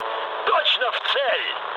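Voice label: Russian bomb success mood med, speech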